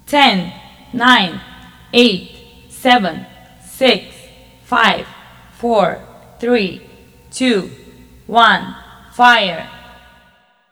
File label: 10sec women